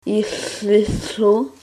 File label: you're welcome, greenlandic